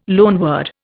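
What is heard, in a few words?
"loanword", Indian English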